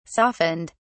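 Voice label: "softened", British English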